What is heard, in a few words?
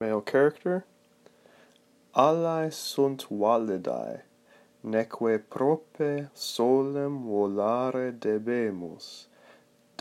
Dramatic Interpretation Male